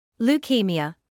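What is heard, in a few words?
"leukaemia", British English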